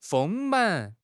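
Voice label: "丰满", Chinese; HSK6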